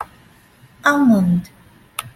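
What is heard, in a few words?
"almond", Taiwanese, female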